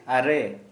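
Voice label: "nine", Santali, male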